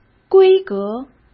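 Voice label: "规格", Mandarin Chinese; chinese-flashcards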